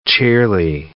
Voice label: "cheerly", English

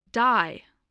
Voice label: "dye", English

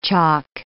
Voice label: "chalk", English

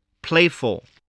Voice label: "playful", English

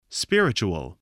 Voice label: "spiritual", English voice

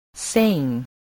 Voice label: "saying", english spelling

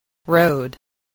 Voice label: "road", English spelling

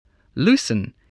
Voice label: "loosen", spelling

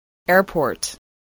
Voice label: "airport", English

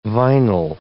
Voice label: "vinyl", English

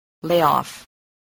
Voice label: "layoff", English

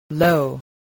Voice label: "low", voice

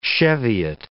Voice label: "cheviot", English

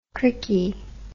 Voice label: "crickey", English

